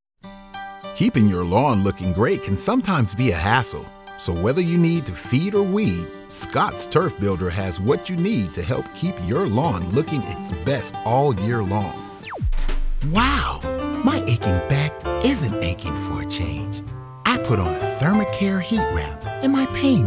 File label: commercial